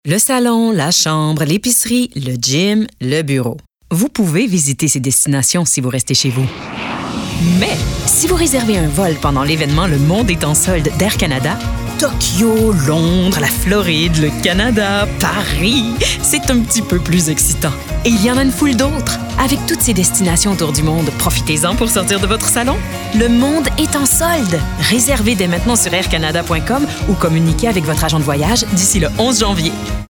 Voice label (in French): French TV pub VO; AirCanada; voix hors champ